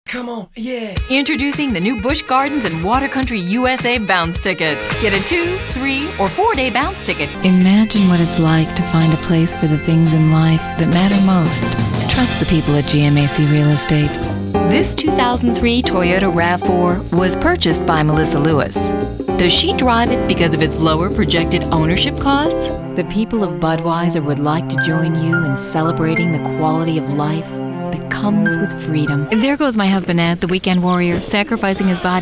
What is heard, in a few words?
commercial